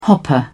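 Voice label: "hopper", British English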